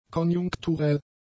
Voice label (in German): "konjunkturell", German; adjectives